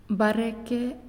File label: "Bird", Naasioi; unitech